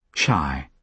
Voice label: shy